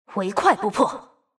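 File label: attack, Chinese; Akali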